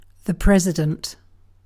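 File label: "president", British English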